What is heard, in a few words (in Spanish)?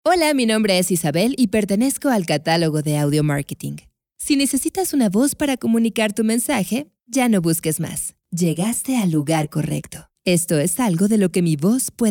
locutora